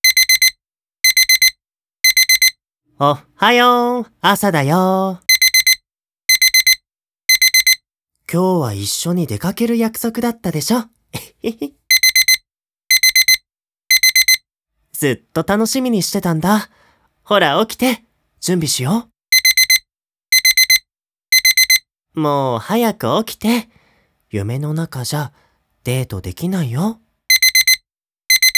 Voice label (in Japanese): ちょっと妬いちゃうな? アラーム付き